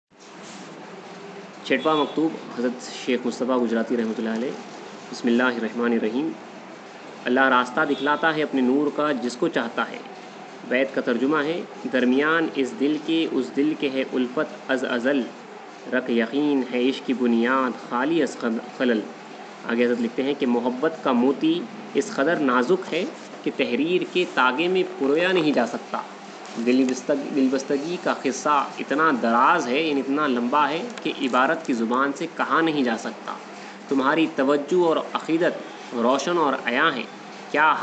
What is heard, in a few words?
Letter Gujrati; maktoobat letters hz sheikh mustafa